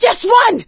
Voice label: yell run; Left 4 Dead 2; producer

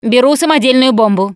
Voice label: take pipe bomb, russian, Survivor Voice Producer

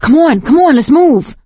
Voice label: emphatic go, survivor voice; Left 4 Dead 2; producer

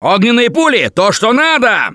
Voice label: incend ammo, russian, Survivor Voice; Gambler

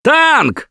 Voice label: Warn Tank, russian; Gambler

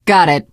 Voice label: kill confirmation, teengirl, voice; Left 4 Dead 2

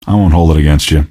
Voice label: answer, male; npc; Garry's Mod; hl2

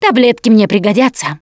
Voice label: Take Pills, russian; Producer

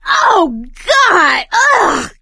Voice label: boomer reaction, survivor voice; Left 4 Dead 2; producer

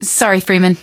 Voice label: "sorry", female; npc; Garry's Mod; hl2

